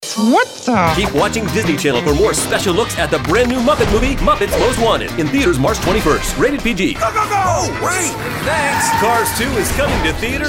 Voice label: Promo; Disney

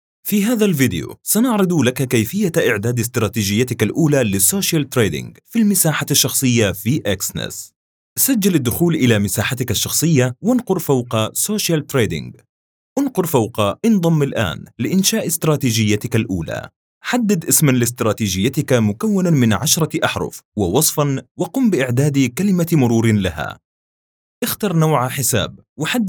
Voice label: Trading-tech E-Learning voiceover

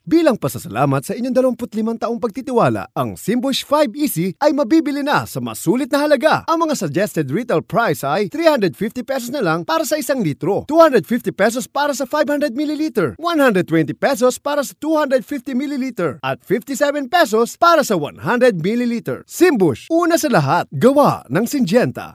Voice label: Happy Radio Ad

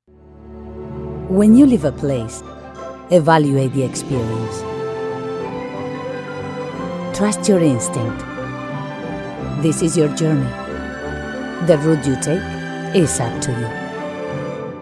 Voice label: english

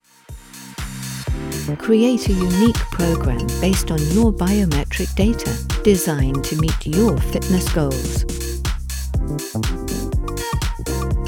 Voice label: Ad; Indiegogo

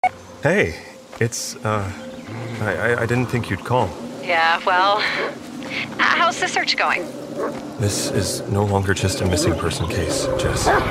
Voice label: Video Game and Animation

